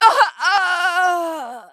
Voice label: Female Human Death